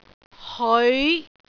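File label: "heoi5", Cantonese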